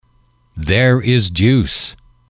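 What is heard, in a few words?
"there is juice", English, male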